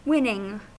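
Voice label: "winning" English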